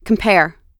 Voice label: "compare", us